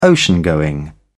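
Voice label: "ocean going", British English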